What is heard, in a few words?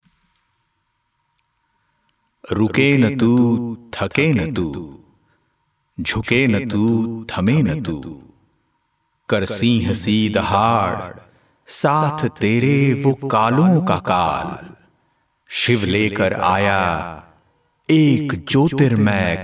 Voice Over